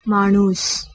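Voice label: "manus", Proto-Indo-European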